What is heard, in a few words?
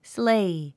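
"sleigh", English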